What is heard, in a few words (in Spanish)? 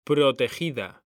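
"PROTEGIDA", Spanish; mekanta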